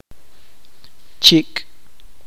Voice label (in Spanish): "sangre", chuj